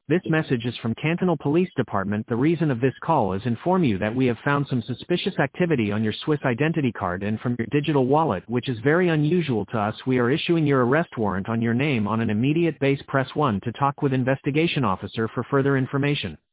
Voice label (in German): Tonbandansage Englisch; Swiss-ID